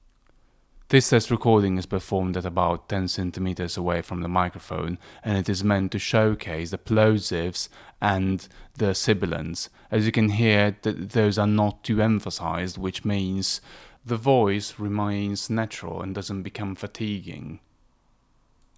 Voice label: test plosives sibilants; Fifine K688